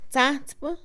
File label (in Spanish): Mixe, Mujer; carpintero; Acciones; INALI